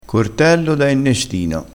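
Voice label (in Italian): "innestino", crespinese dialettale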